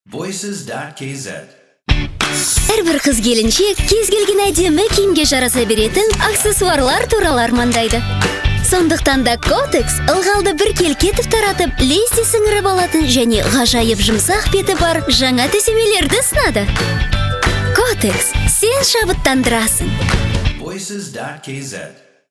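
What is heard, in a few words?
Kazakh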